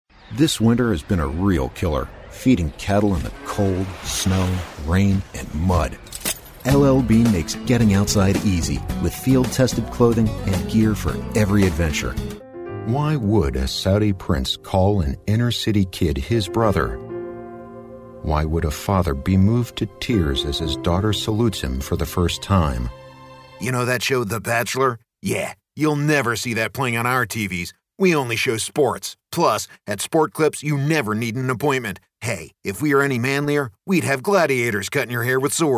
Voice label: Voice Over Artist Commercial; Boston

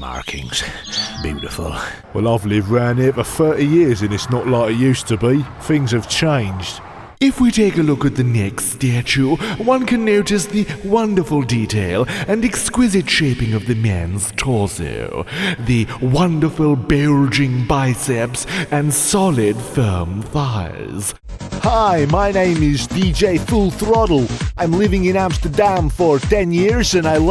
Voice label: Voice Over Artist, Characters; London

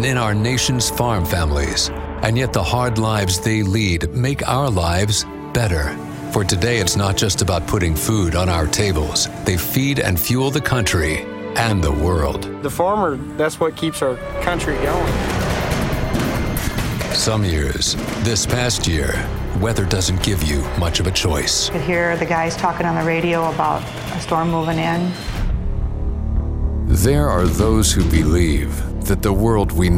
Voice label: Voice Over Artist, Documentary; Orlando

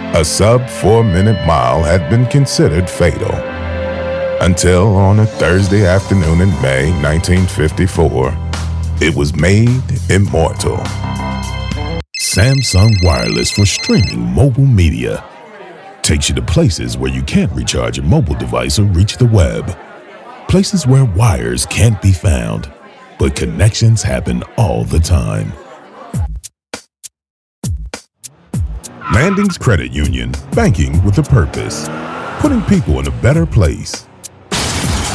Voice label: Voice Over Artist; Philadelphia

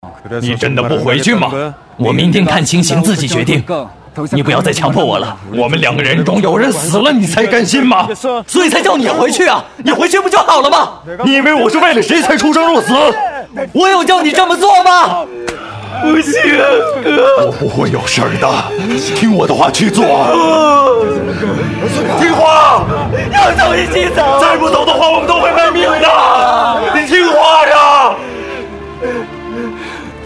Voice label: Voice Over Artist, Film Dubbing Samples

